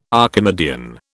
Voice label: "Archimedean", British